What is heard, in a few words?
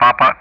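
"Papa", English; Ka-50; Alphabet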